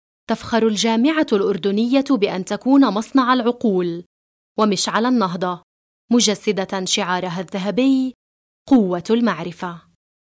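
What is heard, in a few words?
arabic female narration, voice demos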